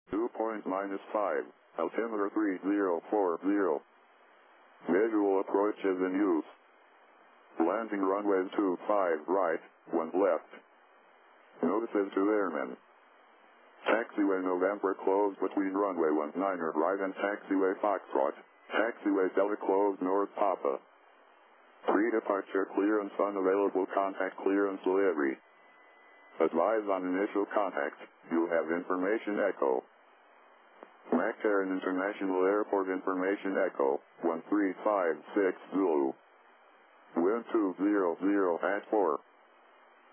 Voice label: ATIS, USA; las